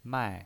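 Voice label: "mai", pinyin voice, Mandarin Chinese, fourth tone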